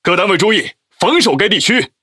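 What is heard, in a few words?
Speech Quick Commands Ally Point, Chinese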